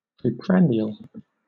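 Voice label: "preprandial", British English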